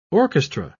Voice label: orchestra